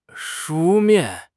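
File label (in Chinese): "书面", Chinese; HSK6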